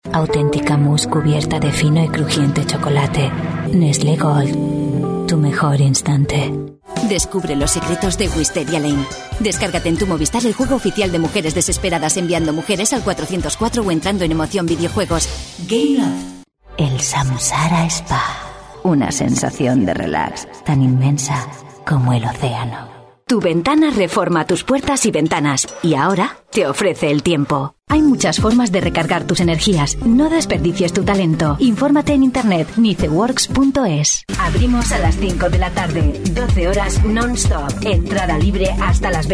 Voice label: spanish female commercials